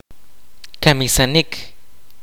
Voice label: "kill", kaqchikel